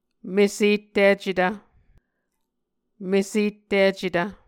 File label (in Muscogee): "mesetticetv", Muscogee